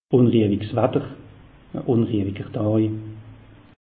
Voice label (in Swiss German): "unriewig", alsace; meteo